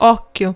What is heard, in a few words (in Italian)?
"occhio", it; ktuberling; games